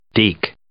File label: "deke", English